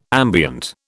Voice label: "ambient", British